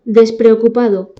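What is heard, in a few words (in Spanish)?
"despreocupado", Spanish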